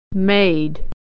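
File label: "made", American English; homophones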